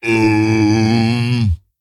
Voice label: Ogre Emote Thinking; Boulderfist